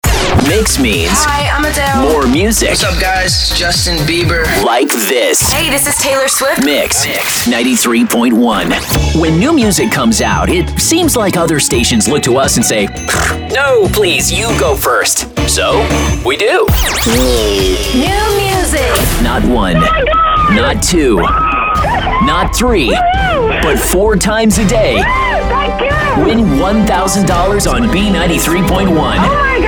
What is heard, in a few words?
radio hot ac, radio imaging